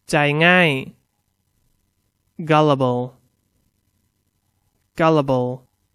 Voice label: "gullible", English; Adj

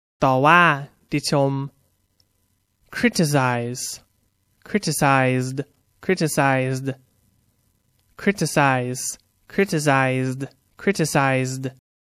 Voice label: "criticize", English; Verb

